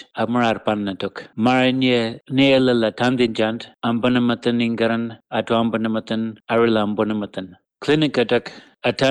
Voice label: diphtheria outbreak alyawarr